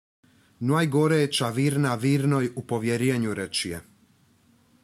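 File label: "recie", Croatian dialect; rečie reče, kaže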